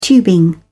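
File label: "tubing", British English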